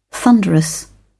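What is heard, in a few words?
"thunderous", British English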